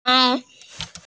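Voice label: "na2", Mandarin Chinese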